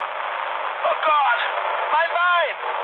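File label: German damaged pilot mood low, speech; War Thunder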